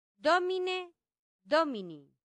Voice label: "domine domini", latin; santillana

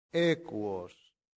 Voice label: "equos", latin; santillana

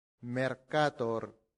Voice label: "mercator", latin; santillana

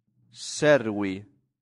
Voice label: "servi", Latin; santillana

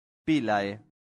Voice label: "pilae", latin; santillana

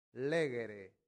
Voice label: "legere", latin; santillana

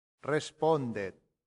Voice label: "respondet", Latin; santillana

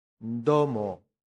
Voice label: "domo", latin; santillana

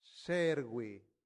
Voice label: "servi", Latin; santillana

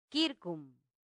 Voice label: "circum", latin; santillana

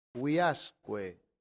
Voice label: "viasque", latin; santillana

